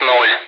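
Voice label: Speech, Russian, air traffic control; Digits; DCS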